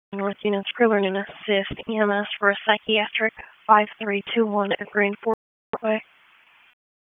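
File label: Police Northeast District Disp, radio; Raleigh; wake; p25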